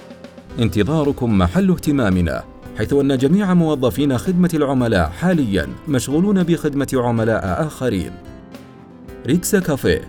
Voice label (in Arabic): عينة رد آلي; ريكسا